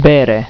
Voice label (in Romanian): "bere", romanian